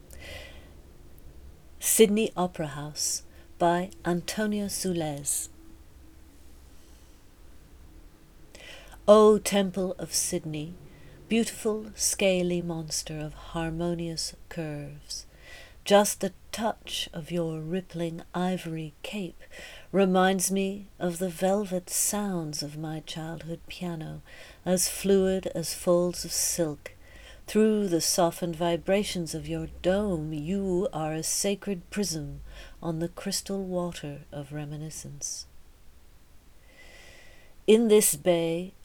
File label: English; Sydney Opera House